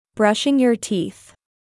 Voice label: "Brushing Your Teeth", English

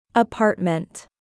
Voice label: "apartment", English

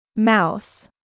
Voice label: "mouse", English

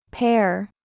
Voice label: "Pear", English

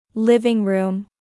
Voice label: "Living Room", English

